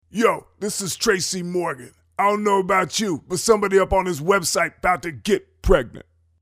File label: Impression; Tracy Morgan